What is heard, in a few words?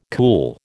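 "cool", American English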